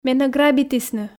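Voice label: Komi, female; менӧ грабитісны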